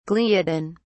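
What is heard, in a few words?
"gliadin", British English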